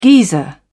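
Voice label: "geyser", British English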